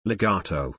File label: word legato